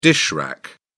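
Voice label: "dish rack", British English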